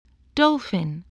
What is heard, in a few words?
"dolphin", spelling